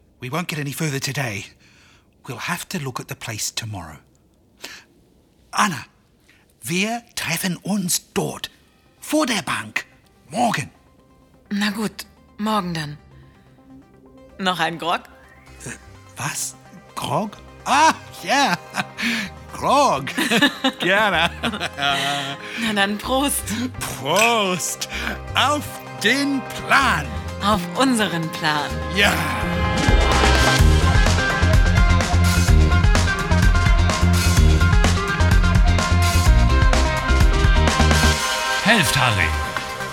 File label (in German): Hoerspiel